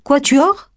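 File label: "quatuor", French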